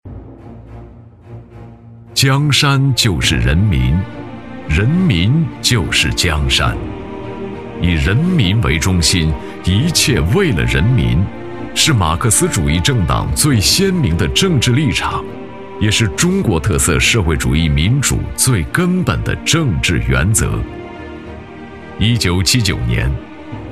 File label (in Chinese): 男, 政府专题, 成熟稳重; 醇厚磁性; 成熟厚重